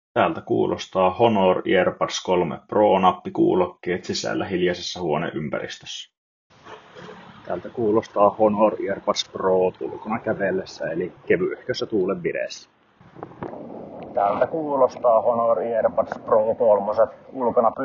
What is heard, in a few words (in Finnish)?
Mikrofoniesimerkit